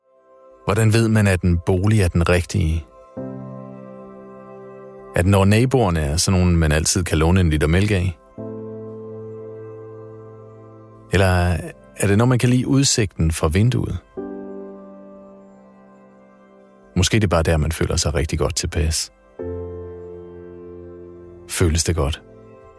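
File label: Danish, male, Commercial Neutral Real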